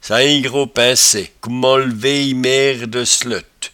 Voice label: "caingrospainsecment", patois jura